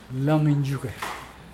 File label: honeybee, Mokilese